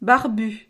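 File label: "barbu", French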